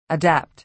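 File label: "adapt", American English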